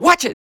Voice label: barely vox; HeavenStudioPlus; airboarder